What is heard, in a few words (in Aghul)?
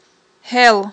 "гьел", aghul